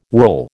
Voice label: "whorl", American